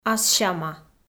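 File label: "ассяма", Komi, female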